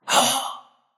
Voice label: anime voice

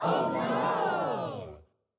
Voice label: audience "oh no"

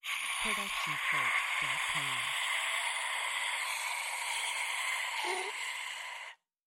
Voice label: Scary Whisper; soundscrate

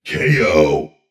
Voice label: booming male narrator "ko"

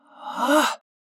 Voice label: anime voice

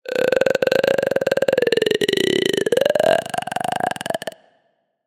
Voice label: horror scary voice; soundscrate

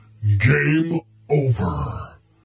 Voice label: battle narrator "game over"

